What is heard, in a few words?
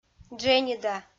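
"дженьыда", Komi, female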